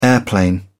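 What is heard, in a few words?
"airplane", British English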